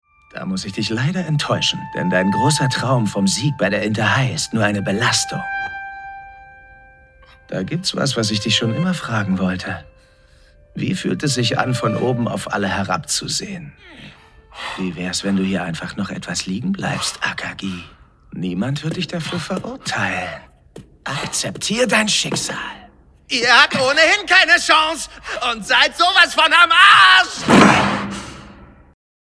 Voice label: Lip-Sync (Synchron), German; The First Slam Dunk (Anime); LOFT VOICES

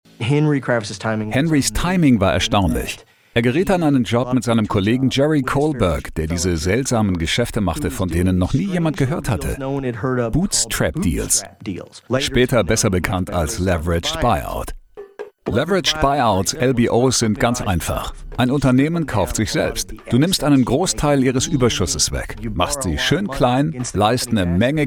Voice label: Doku; Bloomberg; KKR

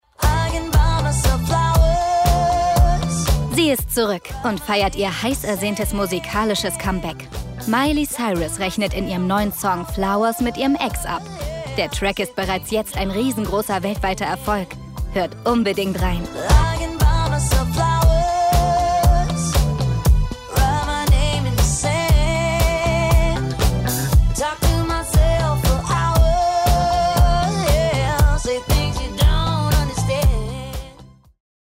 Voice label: Musikwerbung; LOFT VOICES

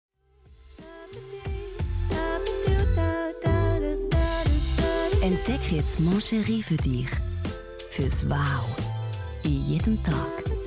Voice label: CH deutsch; Mon Cheri

